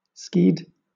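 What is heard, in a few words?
"skied" English, British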